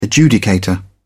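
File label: "adjudicator", British English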